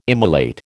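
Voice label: "immolate", American English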